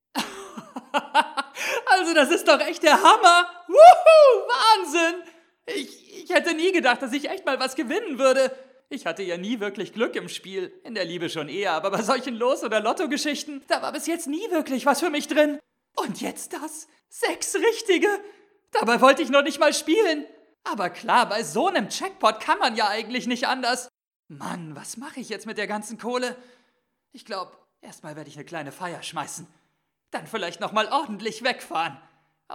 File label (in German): Begeistert, Schauspiel